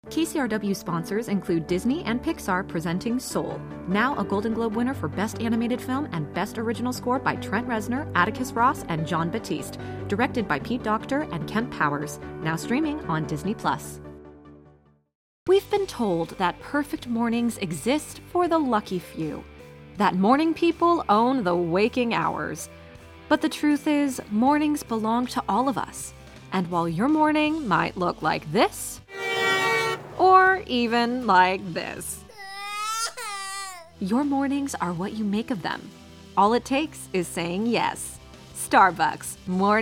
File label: VO Commercial